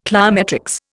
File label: "cliometrics", Indian